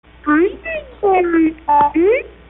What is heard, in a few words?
Greetings Everybody High, voder